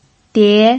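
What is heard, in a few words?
"die", Mandarin Chinese, second tone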